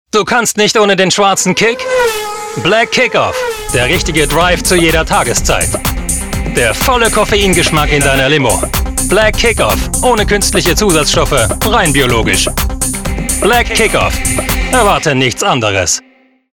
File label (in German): Werbung; BlackKickOff